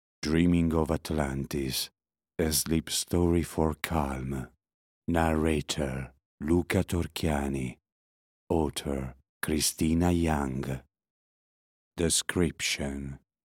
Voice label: English audiobooks